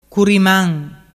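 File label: "curiman", Vernazzese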